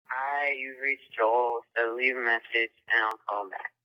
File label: voicemail